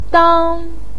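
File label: "dang1", Mandarin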